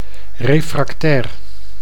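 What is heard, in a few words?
"refractair", Dutch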